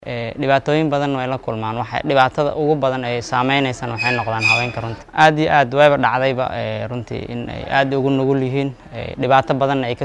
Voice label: VOX POP